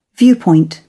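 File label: "viewpoint", British English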